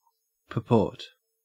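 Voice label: "purport", Australian English; pronunciations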